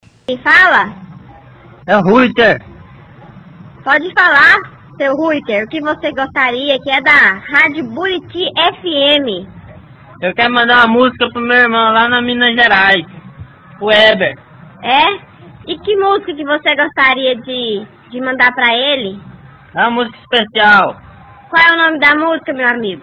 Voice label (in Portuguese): pedindo musica na radio